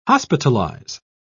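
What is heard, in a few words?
"hospitalise", British English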